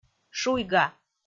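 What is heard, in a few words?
"шуйга", Komi, female; kpv audio dict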